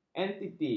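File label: "entiti", Malay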